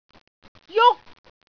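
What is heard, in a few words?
"juk1", Cantonese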